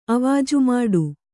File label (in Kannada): "ಅವಾಜುಮಾಡು", kannada